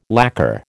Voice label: "lacker", American

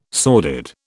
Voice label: "sordid", British

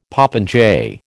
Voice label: "popinjay", American

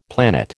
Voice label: "planet", American

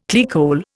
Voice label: "clearcole", Indian

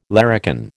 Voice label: "larrikin", American

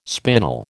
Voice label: "spinal", American

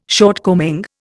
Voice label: "shortcoming", Indian

